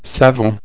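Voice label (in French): "savon", French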